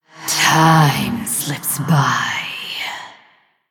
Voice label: Female Blood Elf Thinking